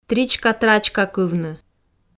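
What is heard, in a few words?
"тричка-трачкакывны", Komi, female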